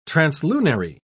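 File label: "translunary", British English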